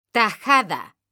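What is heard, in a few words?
"tajada", Spanish, female; mekanta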